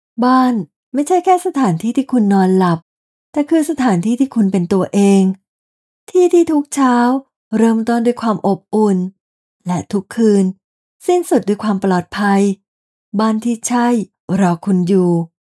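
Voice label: Ads Real Estate, Radio Commercials, Female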